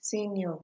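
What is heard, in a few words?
"senior", Nigerian Pidgin, female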